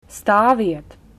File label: stop, latvian